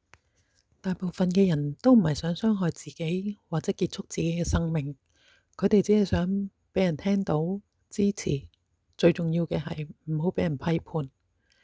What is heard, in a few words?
Cantonese; mental health services